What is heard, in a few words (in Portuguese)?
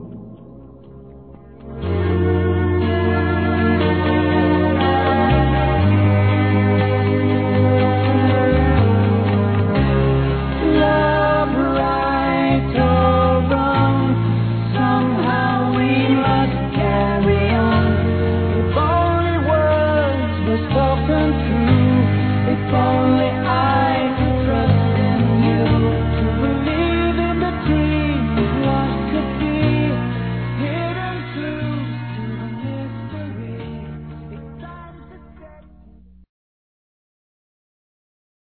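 AMOR NÃO CORRESPONDIDO, Telemensagens, Fem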